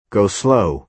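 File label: "go-slow", American English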